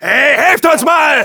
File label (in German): Demoman help me, de